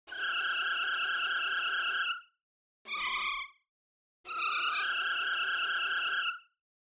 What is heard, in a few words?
krikree happy chirping